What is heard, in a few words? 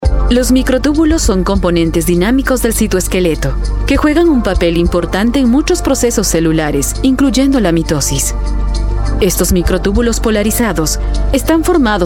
spanish voice over female elearning